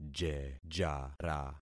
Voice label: "jejara", concat syllables; Affixing experiment

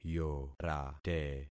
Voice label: "yorate", concat syllables; Affixing experiment

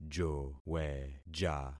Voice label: "joweja", concat syllables; Affixing experiment

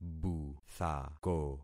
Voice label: "buthako", concat syllables; Affixing experiment

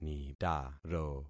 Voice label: "nitaro", concat syllables; Affixing experiment

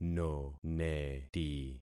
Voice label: "noneti", concat syllables; Affixing experiment

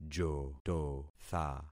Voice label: "jototha", concat syllables; Affixing experiment

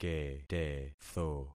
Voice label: "ketetho", concat syllables; Affixing experiment

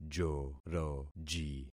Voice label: "joroji", concat syllables; Affixing experiment

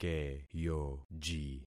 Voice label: "keyoji", concat syllables; Affixing experiment

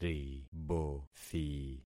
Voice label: "ribothi", concat syllables; Affixing experiment

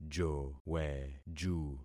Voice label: "joweju", concat syllables; Affixing experiment

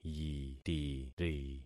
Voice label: "yitiri", concat syllables; Affixing experiment

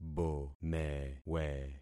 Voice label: "bomewe", concat syllables; Affixing experiment

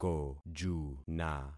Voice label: "kojuna", concat syllables; Affixing experiment

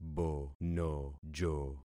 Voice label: "bonojo", concat syllables; Affixing experiment

